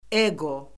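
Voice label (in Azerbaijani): "eqo", Azerbaijani; Orfoqrafiya Lüğəti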